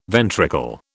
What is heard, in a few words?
"ventricle", British